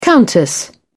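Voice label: "countess", British English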